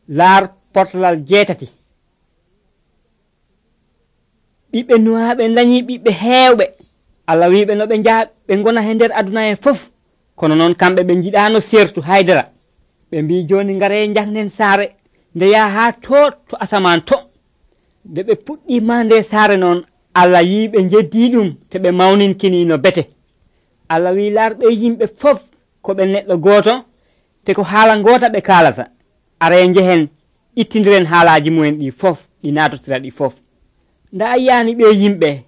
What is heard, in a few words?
nt drama, pulaar fulacunda; beginning with god; bible society of senegal